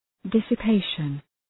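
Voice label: "dissipation", English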